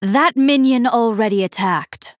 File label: Female Night Elf